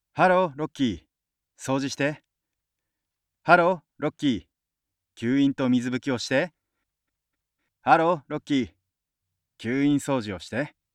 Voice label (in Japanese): やや早いスピード